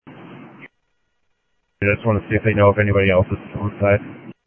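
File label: Sheriff East County, scanner audio; South Scottsdale; MCSO - EAST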